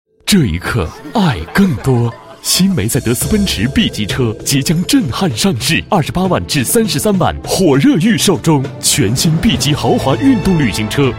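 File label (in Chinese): 广告沉稳大气; 奔驰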